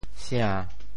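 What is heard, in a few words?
"sia~7", Teochew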